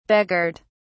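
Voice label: "begirt", en, uk British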